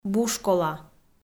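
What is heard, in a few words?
"бушкола", Komi, female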